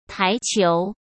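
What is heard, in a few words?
"台球" Mandarin Chinese; hsk; chinese-flashcards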